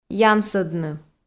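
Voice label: "янсӧдны", Komi, female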